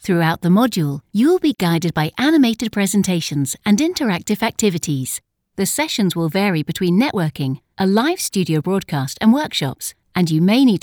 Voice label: english british e learning